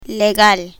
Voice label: "legal", Spanish